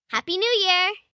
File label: Happy New Year, Occasions, 1 girl, 9 yrs.; Holiday